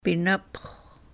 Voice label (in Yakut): "pinap'x̱-", sahaptin